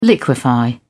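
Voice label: "liquify", British English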